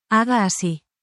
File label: spanish, spanish phrases